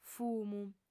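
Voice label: "fumu", female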